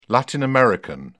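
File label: "latin american", British English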